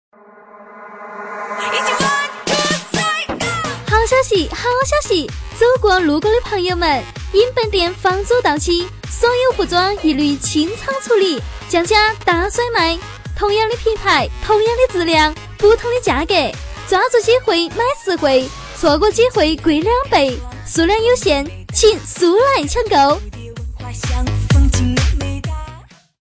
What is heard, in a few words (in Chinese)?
重庆方言 促销 好消息